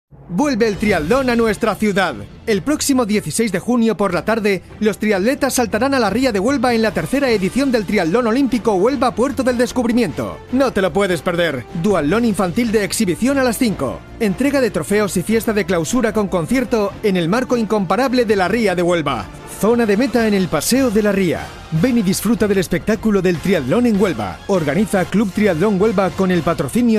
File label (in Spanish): CUÑA PUBLICITARIA RADIO